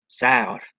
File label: "sear", Anglo Saxon